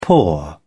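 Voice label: "paw", British English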